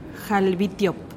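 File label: "jalbitiop", Teotitlán del Valle Zapotec; twenty-two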